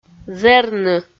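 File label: "зэрны", Komi-Zyrian, female; audio dict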